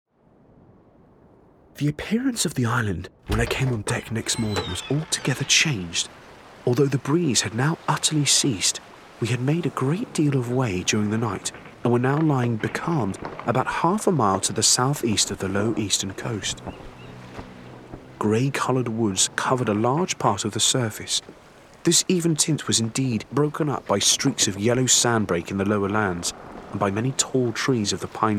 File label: Narrative Voice